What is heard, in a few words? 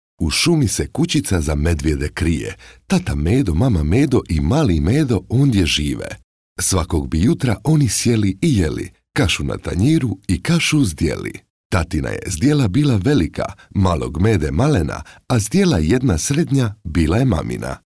croatian male; dictor